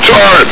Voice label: "tight!", hgrunt